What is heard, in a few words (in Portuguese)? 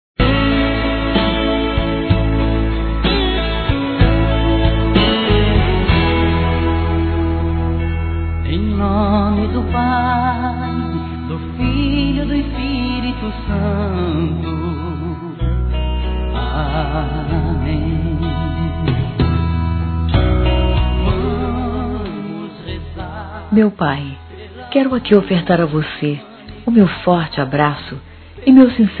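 Natal para pai feminino, Telemensagens; Natal e Ano novo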